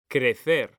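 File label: "CRECER", Spanish; mekanta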